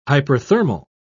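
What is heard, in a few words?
"hyperthermal", British English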